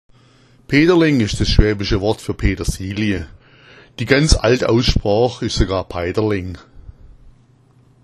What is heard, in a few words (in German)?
"paiterleng", schwaebisch